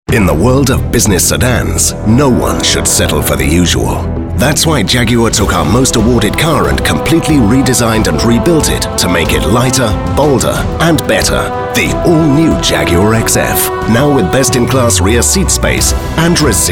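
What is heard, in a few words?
"bruce", British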